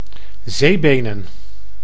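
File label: "zeebenen", Dutch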